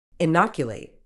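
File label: "inoculate", British English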